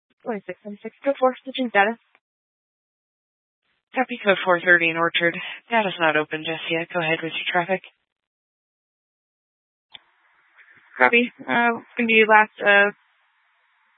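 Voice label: Sheriff Dispatch, Scanner audio recording archive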